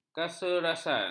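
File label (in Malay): "caesarean", Malay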